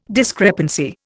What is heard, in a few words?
"discrepancy", Indian